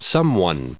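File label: "someone", US English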